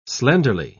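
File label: "slenderly", British English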